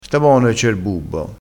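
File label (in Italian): "bubbo", vocabolario dialettale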